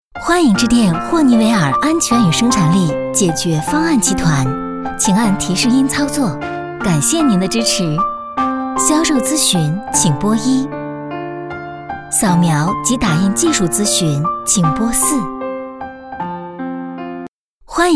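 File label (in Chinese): B女『彩铃』欢迎致电; 霍尼韦尔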